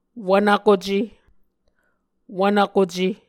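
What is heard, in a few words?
"wvnvkuce", Muscogee word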